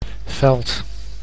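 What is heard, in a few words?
"veld", Dutch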